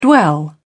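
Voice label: "dwell", British English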